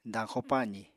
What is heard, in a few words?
"dañxo-pazì", Apatani